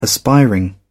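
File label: "aspiring", English, British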